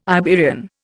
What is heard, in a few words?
"Iberian", Indian